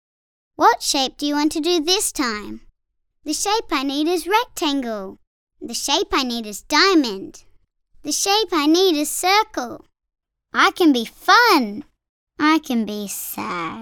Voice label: 10 year old girl